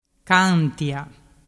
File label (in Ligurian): "cantia", dialettu